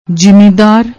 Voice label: "jimidaar", Punjabi

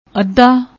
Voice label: "audda", Punjabi; ਅੱਧਾ

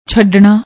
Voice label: "chhadnna", Punjabi

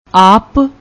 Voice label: "aap", Punjabi; ਆਪ

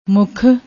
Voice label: "mukh", Punjabi

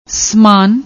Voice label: "sman", Punjabi

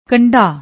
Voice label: "kandda", Punjabi; ਕੰਢਾ

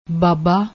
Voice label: "baaba", Punjabi

